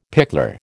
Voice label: "pickler", American